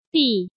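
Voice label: "Bì", Chinese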